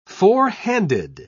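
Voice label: "fourhanded", English, British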